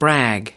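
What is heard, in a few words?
"brag", US English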